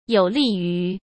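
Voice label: "有利于" Mandarin Chinese; chinese flashcards; hsk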